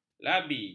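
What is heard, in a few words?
"labi", Malay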